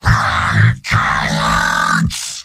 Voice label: battlecry, heavy; tf2; garrysmod; mvm

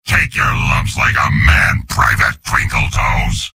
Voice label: soldier taunts; tf2; garrysmod; mvm

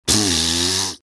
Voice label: jeers

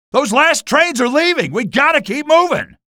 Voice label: cit squad flee, male; Garry's Mod

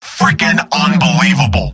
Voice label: scout jeers; mvm

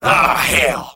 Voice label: engineer negative vocalization; tf2; mvm

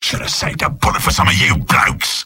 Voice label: sniper jeers; mvm

